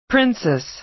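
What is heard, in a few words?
"princess", American English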